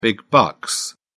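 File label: "big bucks", English, British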